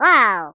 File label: Jump, Default ru; hedgewars